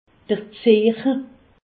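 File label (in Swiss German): "zeeche", Haut Rhin; medecine; orteil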